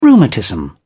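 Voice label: "rheumatism", British English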